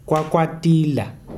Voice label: "claw", Kalanga

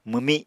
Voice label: "mwmi", Apatani

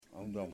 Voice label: "Black", Yimas

